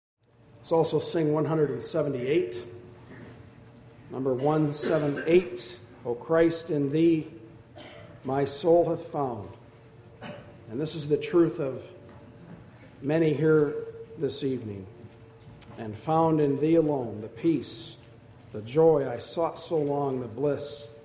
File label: announce hymn; 2015Conference